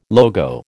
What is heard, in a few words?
"logo", American